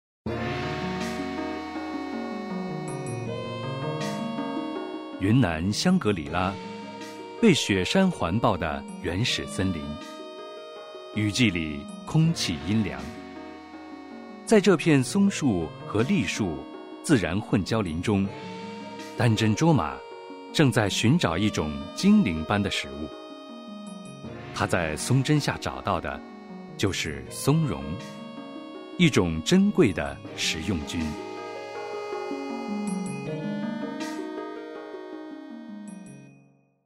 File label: foreigner